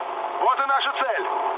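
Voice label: near waypoint, mood med, Russian; War Thunder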